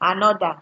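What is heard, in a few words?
"anoder", Nigerian Pidgin, female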